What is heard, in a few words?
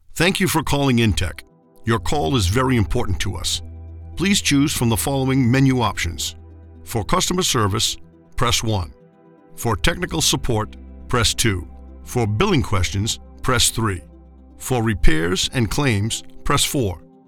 PHONE MENU, Voiceover Actor and Narration